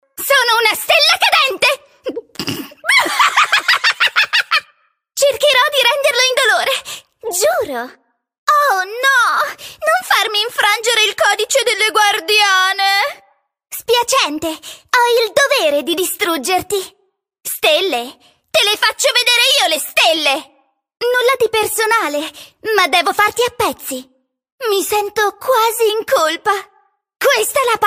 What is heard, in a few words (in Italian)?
Spot Teenager